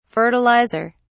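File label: "fertiliser", British English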